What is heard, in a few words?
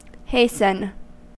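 "hasten", US English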